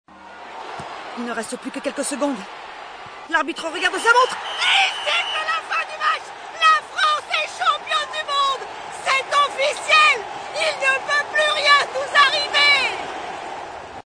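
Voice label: Loud Sport Commentary, Football, French; Football World Cup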